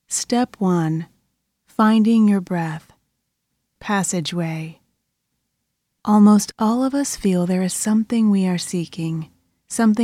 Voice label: english american others